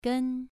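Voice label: "gen", Mandarin Chinese, second tone, pronunciation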